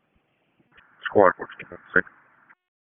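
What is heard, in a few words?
Fire Department HQ Dispatch; RFD HQ DISP; wake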